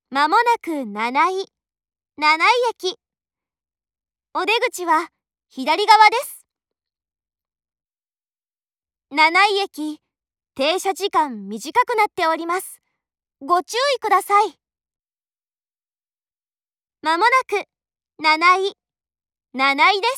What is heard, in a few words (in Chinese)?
七井站抵达前 广播, 车内广播; 万冈铁路车内广播；茂木方向